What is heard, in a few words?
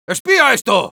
sniper cloaked spy, es; "¡Espía esto!"